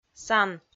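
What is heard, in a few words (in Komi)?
"сан", Komi, female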